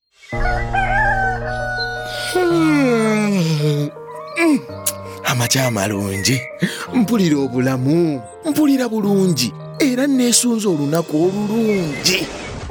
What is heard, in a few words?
HIV Spot Luganda; Viral Load Suppression; radio spot